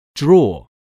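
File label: "draw", English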